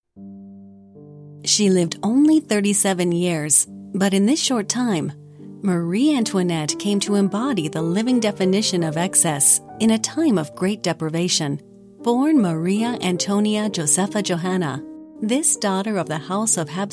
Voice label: DOCUMENTARIES